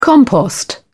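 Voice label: "compost", English, British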